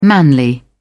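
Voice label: "manly", British English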